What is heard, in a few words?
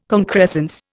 "concrescence", Indian